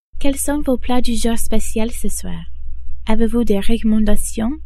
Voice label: au restaurant, French

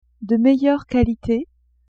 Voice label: "de meilleure qualite", French; vetements

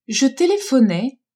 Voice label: "je telephonais", french; telephoner

